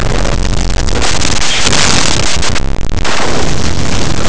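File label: "anzuelo", Spanish; laboratori fonetica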